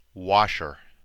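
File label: "washer", American English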